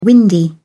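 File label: "windy", British English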